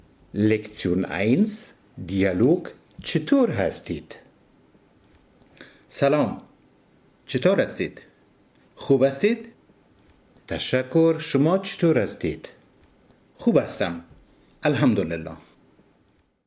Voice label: Dialog, Dari Persisch